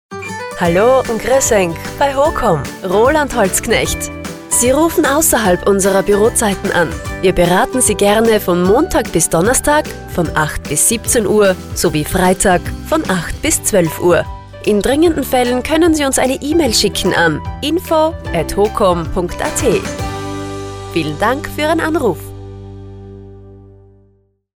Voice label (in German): Nachtansage; HOCOM Umhausen; telefonansagen sonstige